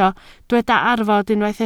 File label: "arfod", Welsh